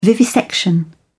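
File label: "vivisection", British English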